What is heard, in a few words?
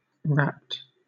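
"rapt", British English; pronunciations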